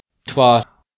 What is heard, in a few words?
"twa", Scots